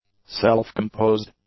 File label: "self-composed", English; adjectives